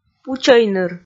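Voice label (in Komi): "пучӧйныр", Komi, female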